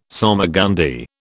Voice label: "salmagundi", British